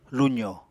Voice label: "luzo", apatani

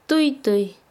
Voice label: Chanka, quechua; flotar

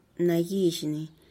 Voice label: "nagizhni", sabroso, tlacochahuaya

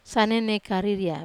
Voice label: "sane nei kariria", Wamesa

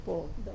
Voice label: "po", front; wamesa

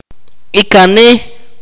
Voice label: "mother's younger sister", Akateko

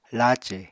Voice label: "lace", apatani; Material Culture

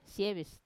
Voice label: "sievis" rainbow, Wamesa

